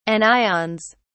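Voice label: "anions", British English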